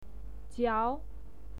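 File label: "jiao", Mandarin Chinese, second tone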